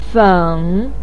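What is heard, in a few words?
"feng", Mandarin pinyin, tone 3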